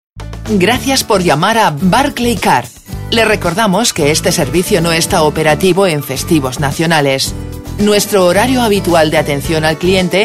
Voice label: telefonansagen; barcleycard

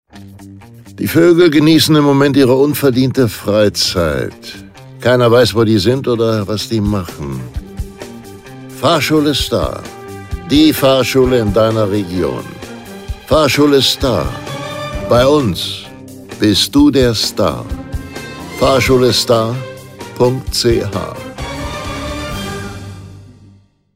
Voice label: Ansage

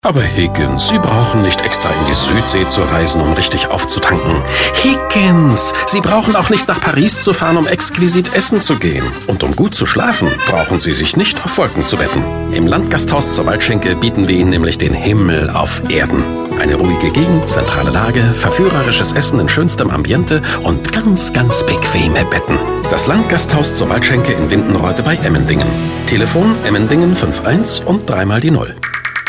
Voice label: telefonansagen sprecher; tom selleck

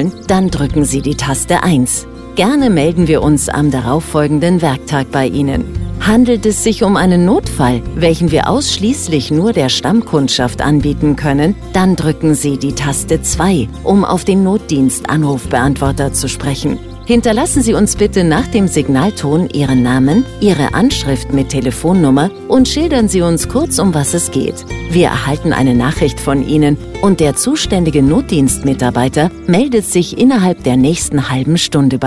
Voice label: Brueckentag, Telefonansage Brückentag; Sanitär & Heizung (SHK); Faisst

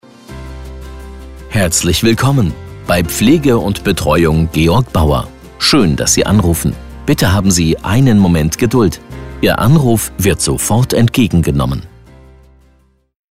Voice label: Ansage; Telefonansage Pflegedienst – Sozialbereich

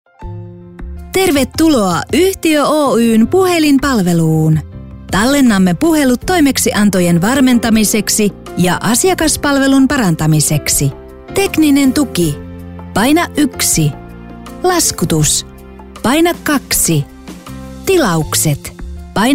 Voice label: telefonansagen sprecher fin; Native Speaker

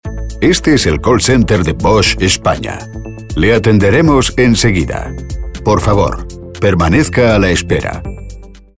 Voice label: telefonansagen; bosch

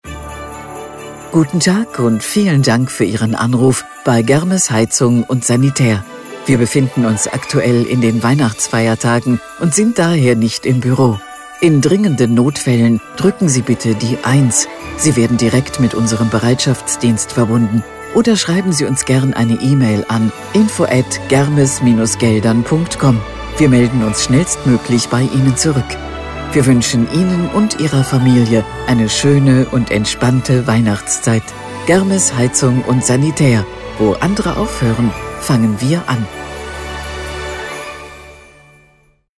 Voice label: Weihnachten, AB Ansage für Weihnachten; Sanitär & Heizung (SHK); Telefonansage; Germes

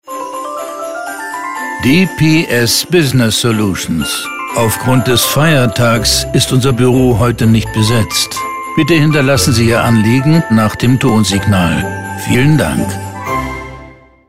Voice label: Feiertagsansage; DPS

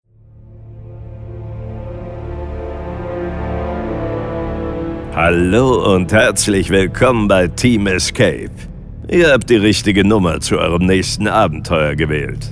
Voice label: IVR, Telefonansagen

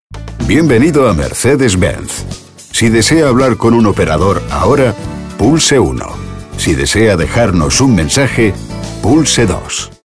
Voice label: telefonansagen; mercedes-benz; 1a-telefonansagen

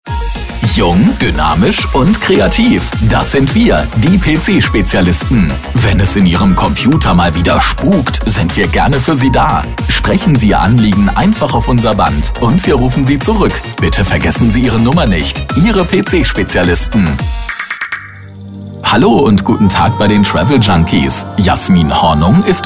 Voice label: telefonansagen sprecher de

